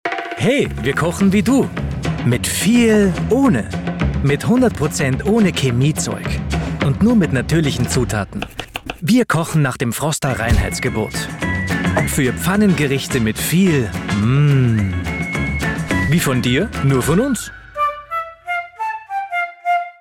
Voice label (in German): Werbung; Frosta